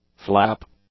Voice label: "flap", English; nouns